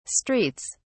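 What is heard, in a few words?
"streets", English, British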